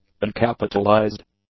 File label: "uncapitalized", English; adjectives